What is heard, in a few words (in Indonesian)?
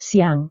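"siang", Indonesian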